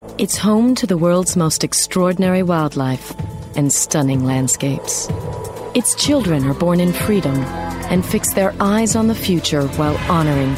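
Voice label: American English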